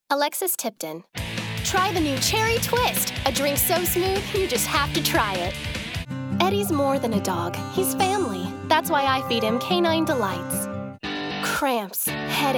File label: commercial